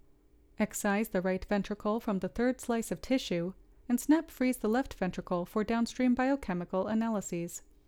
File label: Voiceover